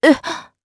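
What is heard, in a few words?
Vox, voices, Japanese; Seria; kingsraid audio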